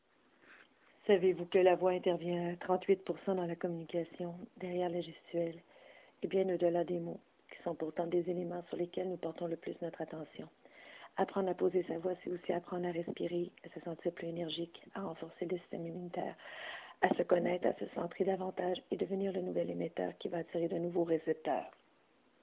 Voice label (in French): Voix monotone